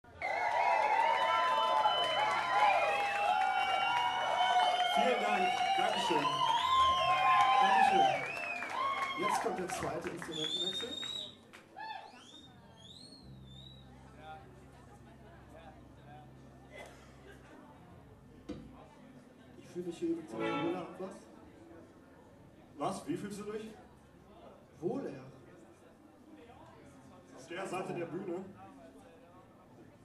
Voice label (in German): ansage